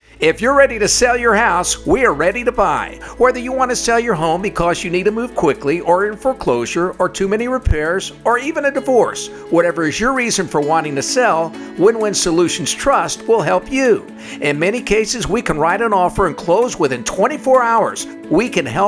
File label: Selling Radio